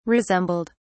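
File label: "resembled", British English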